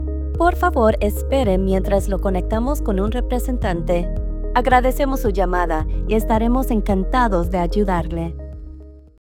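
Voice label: IVR Spanish; KeyClub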